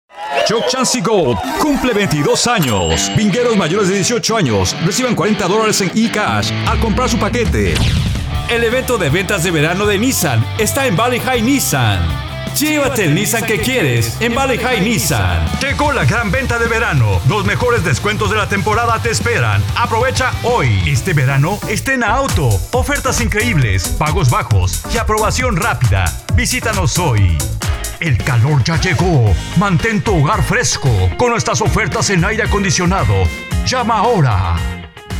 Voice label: Spanish